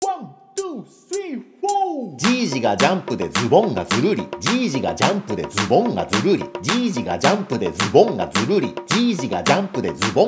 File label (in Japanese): 早口言葉リズム（中級編）